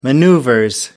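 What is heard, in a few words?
"maneuvers", British English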